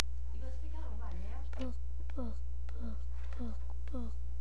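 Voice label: "book", spoken word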